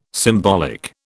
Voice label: "symbolic", British